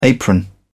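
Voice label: "apron", British English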